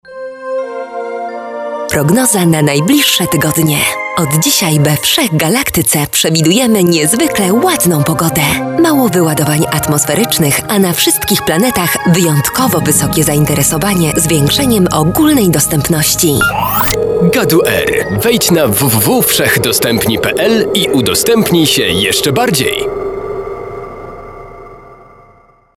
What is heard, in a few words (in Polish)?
spot